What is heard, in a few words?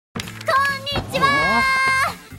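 "konnichiwa", Japanese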